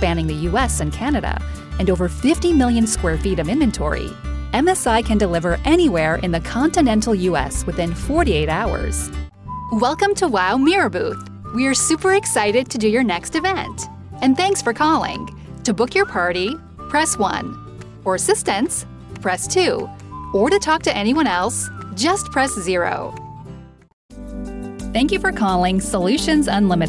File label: On Hold IVR